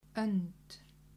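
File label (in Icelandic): "Önd", Icelandic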